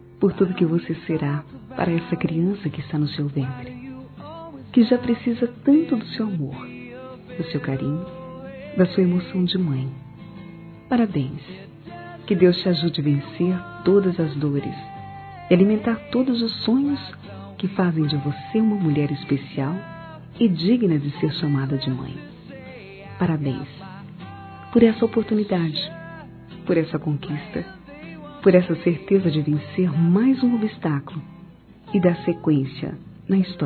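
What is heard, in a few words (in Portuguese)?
cumprimentando pela gravidez geral, feminino; Telemensagens; Parabenização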